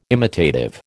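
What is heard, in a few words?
"imitative", American English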